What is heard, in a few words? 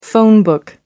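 "phone book", British English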